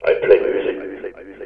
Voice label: I played, Vox EFX; Zero-G; Total Drum Bass